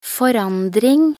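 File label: "forandring", Norwegian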